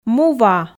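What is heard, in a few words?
"му-ва", Komi, female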